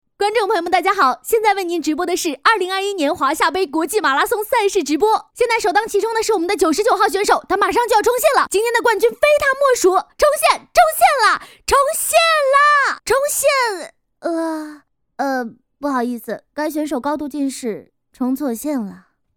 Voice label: 体育直播

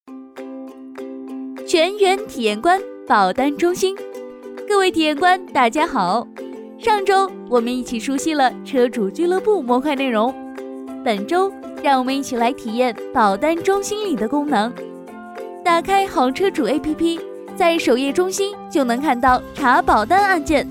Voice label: 广告宣传

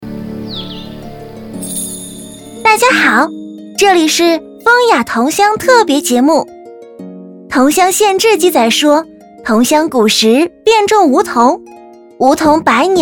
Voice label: 活泼介绍

